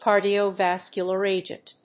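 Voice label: "Cardiovascular Agent", English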